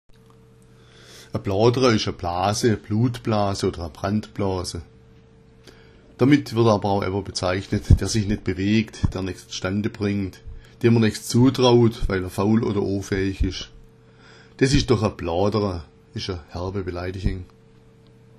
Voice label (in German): blodera, schwaebisch